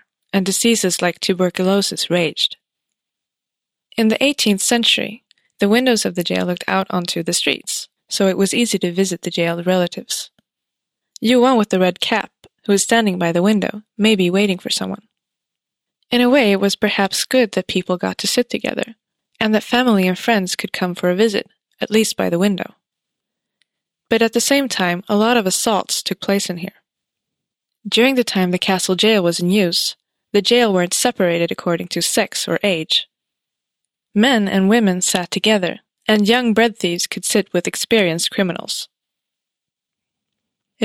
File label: holding cell, audioguider; eng; slottshaktet